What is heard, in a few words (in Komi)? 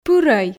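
"пырӧй", Komi, female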